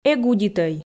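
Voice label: "эг удитӧй", Komi, female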